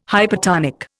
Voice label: "hypertonic", Indian

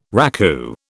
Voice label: "raku", British

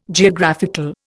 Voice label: "Geographical", Indian

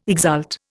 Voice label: "exult", Indian

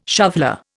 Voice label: "shoveller", Indian

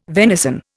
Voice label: "venison", Indian

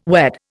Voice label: "wed", Indian

